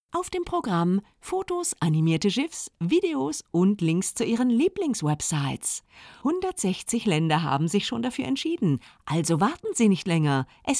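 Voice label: German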